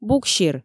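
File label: "буксир", Komi, female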